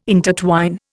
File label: "intertwine", Indian